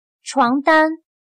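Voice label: "床单", Chinese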